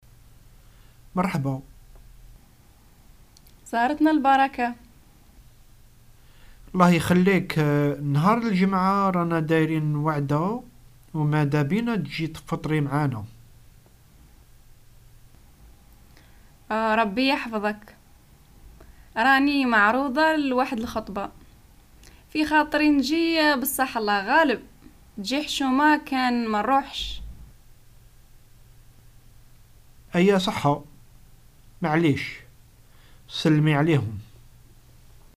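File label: decline invitation, algerian arabic dialogues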